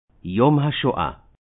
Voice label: "Yom Ha-shoah", Hebrew